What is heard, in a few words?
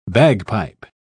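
"bagpipe", British English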